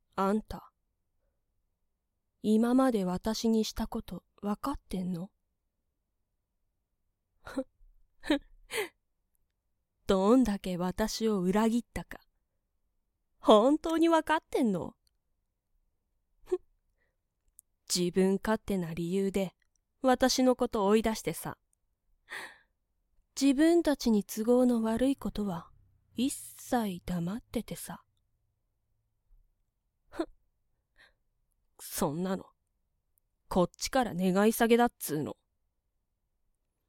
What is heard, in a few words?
angry